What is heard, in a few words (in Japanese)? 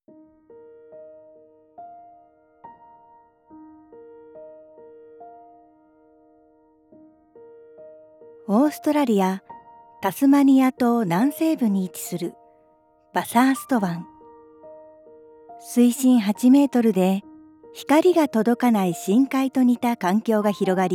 ナレーション